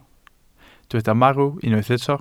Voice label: "marw", welsh

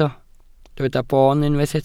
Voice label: "boon", Welsh

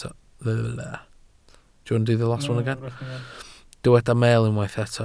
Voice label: "meel", Welsh

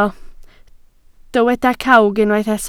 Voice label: "cawg", Welsh

